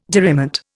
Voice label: "diriment", Indian